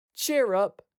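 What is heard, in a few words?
"Cheer up", British English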